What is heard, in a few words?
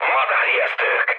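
Hungarian enemy detected mood high, speech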